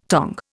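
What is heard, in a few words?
"tongs", Indian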